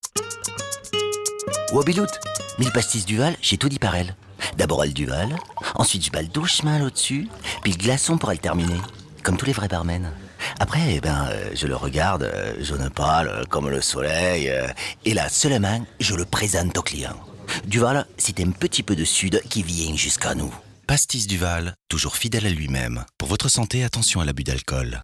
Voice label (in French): Chti